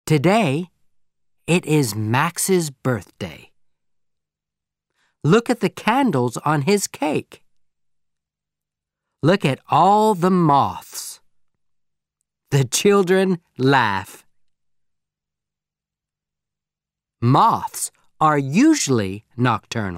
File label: Audio American English